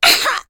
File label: pain; awesomenauts